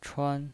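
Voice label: "chuan", Mandarin Chinese pinyin voice, first tone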